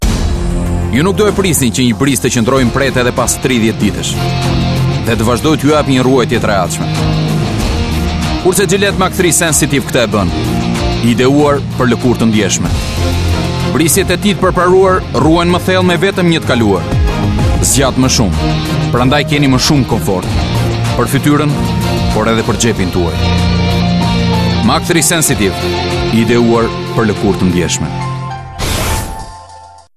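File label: Albanian; Albanian, Male, 20s-30s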